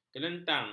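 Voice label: "kelentang", Malay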